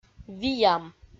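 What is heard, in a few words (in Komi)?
"виям", Komi, female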